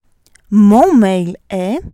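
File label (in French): "Mon mail est", French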